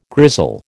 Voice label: "grizzle", American